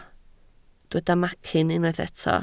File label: "macyn", Welsh